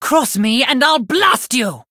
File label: Blood Elf Threaten